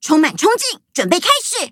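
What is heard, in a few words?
battle, Traditional Chinese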